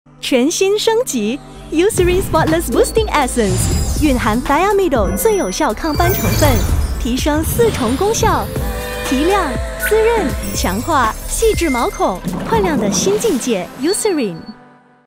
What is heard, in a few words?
Mandarin Announcer, Style: Mid tone, Warm & Expressive; Nestum